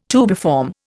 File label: "tubiform", Indian